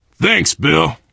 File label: thanks, player survivor voice biker